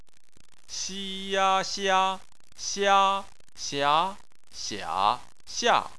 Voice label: "xia", chinese

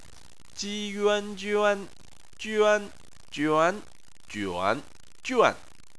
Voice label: "juan", chinese

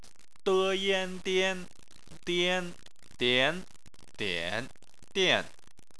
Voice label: "dian", chinese

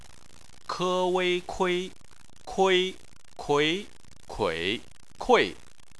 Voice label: "kui", chinese

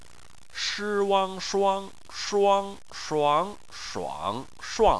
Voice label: "shuang", chinese

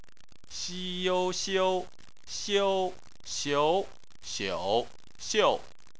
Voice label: "xiu", chinese

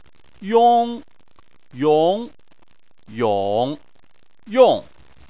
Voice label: "yong", chinese

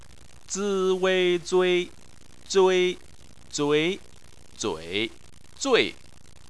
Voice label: "zui", Chinese

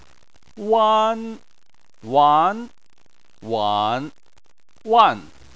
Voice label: "wan", chinese

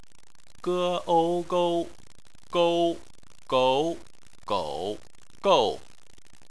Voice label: "gou", chinese

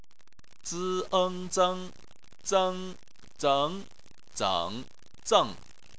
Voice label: "zeng", chinese

